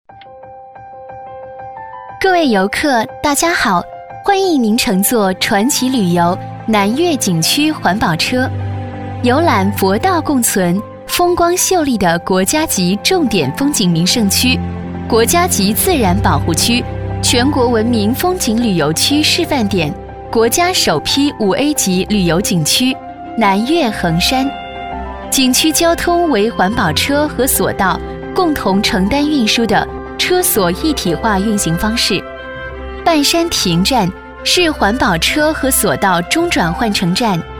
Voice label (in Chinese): 解说 南岳衡山景点介绍 女 甜美